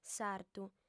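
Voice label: "sartu", Sardinian, female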